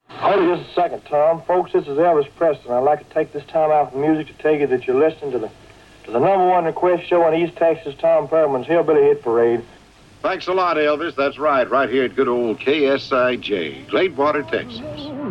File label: Radio commercial with DJ Live; KSIJ